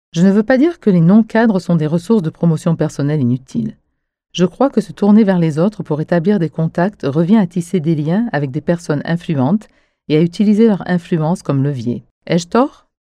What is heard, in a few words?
French; NIIT